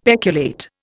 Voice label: "peculate", Indian; Dictionary

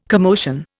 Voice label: "commotion", Indian

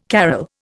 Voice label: "carol", Indian

